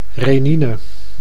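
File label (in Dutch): "renine", Dutch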